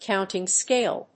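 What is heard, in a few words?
"Counting scale", English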